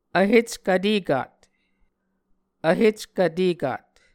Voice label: "vheckv vtekat", Muscogee